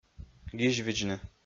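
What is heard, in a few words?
"гизьвидзны", Komi, male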